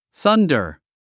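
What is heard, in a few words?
"thunder", English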